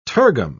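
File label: "tergum", British English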